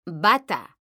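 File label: "bata", Spanish, female; mekanta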